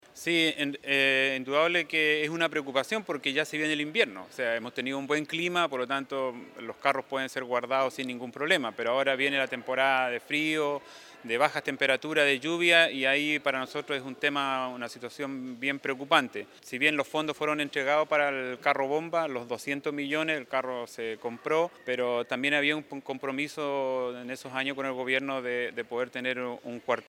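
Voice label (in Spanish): cuña presidente bomberos radio; PTE. BOMBEROS; muere carbonizado al interior una vivienda siniestrada lago ranco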